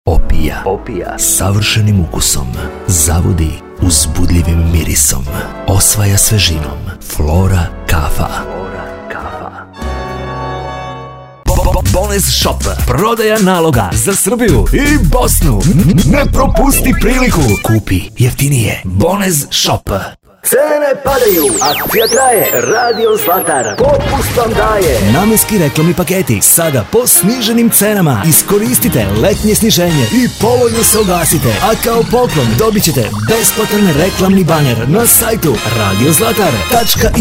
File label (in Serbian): servisnih reklama